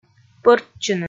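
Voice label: "пӧртчыны", Komi, female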